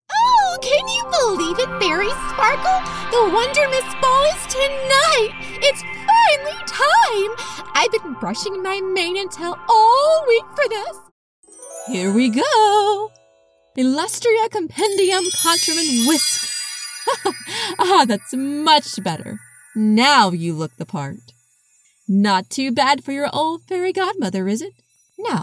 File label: Character Kids